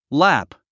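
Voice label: "lap", English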